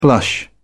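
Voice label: "blush", British English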